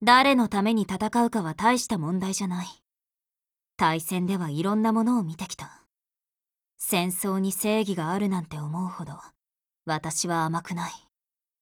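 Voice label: DIALOGUE, Japanese; SCARL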